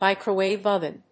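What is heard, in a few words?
"microwave oven", English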